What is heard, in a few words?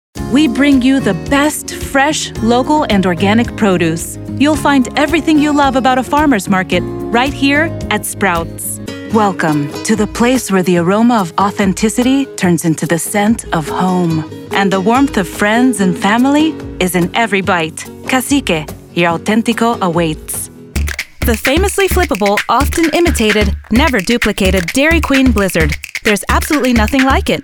Commercial